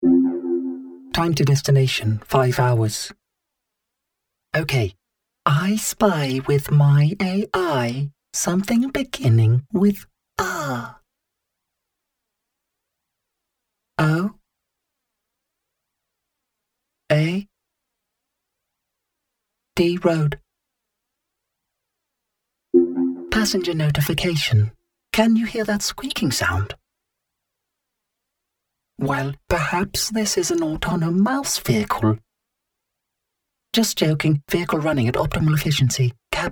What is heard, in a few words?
Android Voice